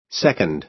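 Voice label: "second", American English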